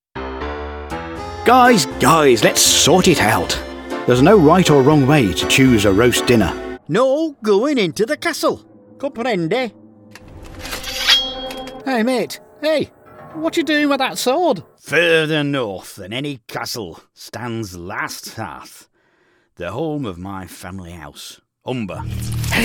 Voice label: accents; British